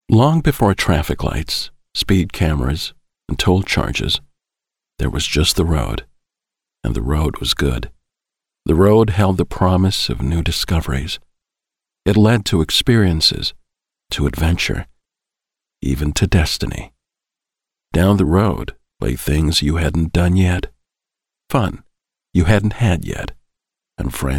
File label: US English Corporate